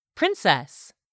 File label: "princess", English; 3000 words